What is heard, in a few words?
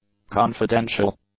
"confidential", English; adjectives